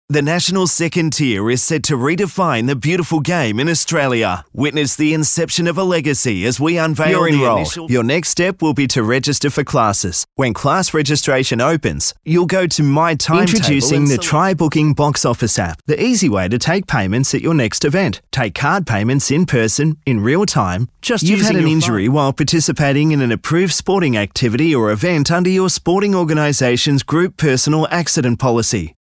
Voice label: Narration